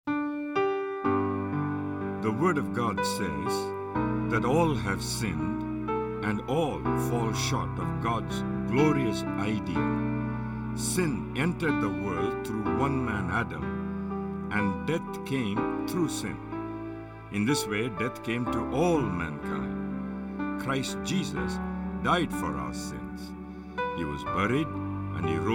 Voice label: Narration